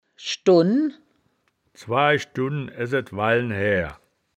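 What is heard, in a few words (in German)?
"schdunn", Wittlicher Wörterbuch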